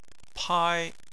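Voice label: "pai" first tone, Chinese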